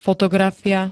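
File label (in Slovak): "fotografia", Slovak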